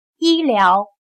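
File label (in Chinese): "医疗" Mandarin Chinese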